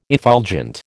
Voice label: "effulgent", American

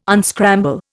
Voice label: "unscramble", Indian

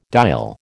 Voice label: "dial", American

